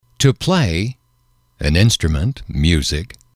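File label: "to play instruments", English, male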